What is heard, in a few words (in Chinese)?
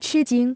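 "吃惊" Chinese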